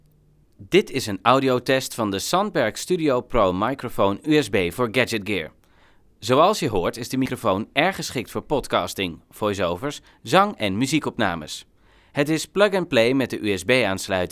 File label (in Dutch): test opname Studio Pro microphone usb